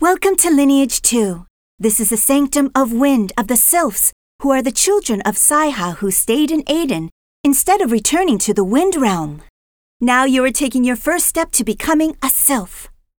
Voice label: tutorial voice, es; lineage2